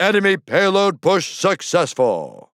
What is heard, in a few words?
Announcer Match Payload Enemy Successful; Bruce; paladins